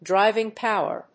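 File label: "driving power", English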